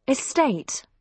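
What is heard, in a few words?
"estate", British English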